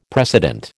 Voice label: "precedent", American